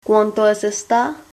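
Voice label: how much, Lingua Franca Nova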